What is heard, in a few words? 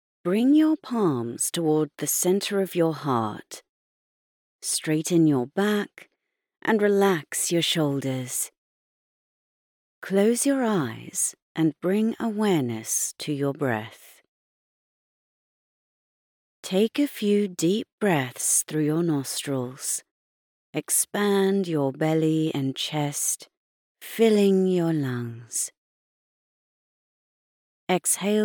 UK yoga, meditation; e-learning; explainer video; phone message